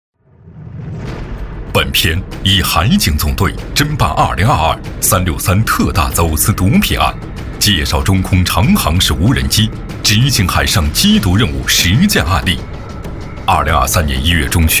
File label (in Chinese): 男 专题 军队 片 大气厚重; 中空长航时无人机